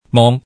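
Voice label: "mong", Hakka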